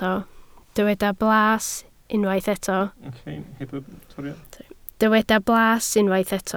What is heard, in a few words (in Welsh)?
"blas", Welsh